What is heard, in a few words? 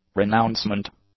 "renouncement", English; nouns